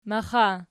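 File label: "maxa" nominative, Archi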